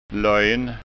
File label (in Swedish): "lojn", skanska ord